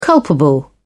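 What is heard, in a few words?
"culpable", British English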